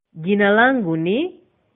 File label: my name is swahili